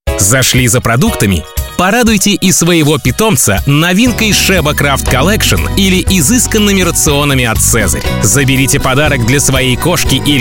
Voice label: audiorolik; профессиональных дикторов для вашего аудиоролика